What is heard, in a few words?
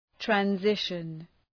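"transition", English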